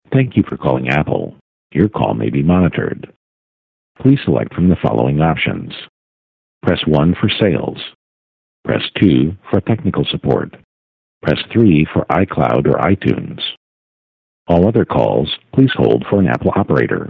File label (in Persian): نمونه متن ivr برای ساعات کاری; شرکت اپل